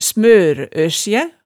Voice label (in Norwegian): "smør-øsjæ", hemsedalsmaalet